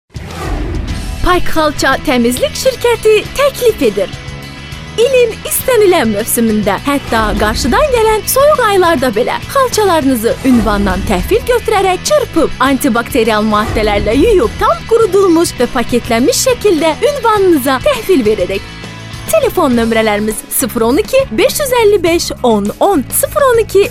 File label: Azerbaijan female